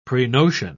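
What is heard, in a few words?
"prenotion", British English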